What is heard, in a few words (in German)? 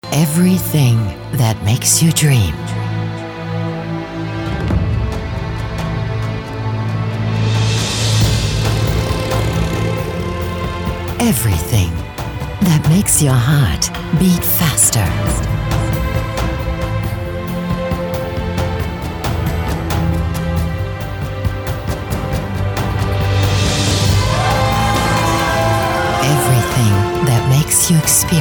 Imagefilm englisch